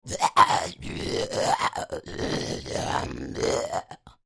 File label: Creature Troll Speak; Monster Sound Effects; Horror; BigRoomSound